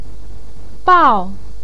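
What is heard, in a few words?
"biao" fourth tone, Mandarin Chinese; 鱼鳔; yú biào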